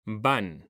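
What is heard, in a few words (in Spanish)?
"van", Spanish; mekanta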